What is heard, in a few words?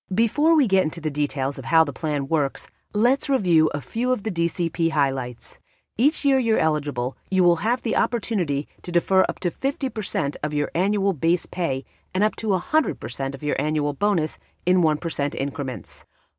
Corporate